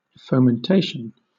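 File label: "fomentation" British English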